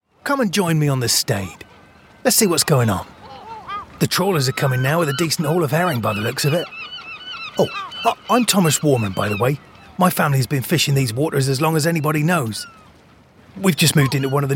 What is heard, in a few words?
acting fisherman character